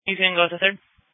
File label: Police Tactical Encrypted; sdr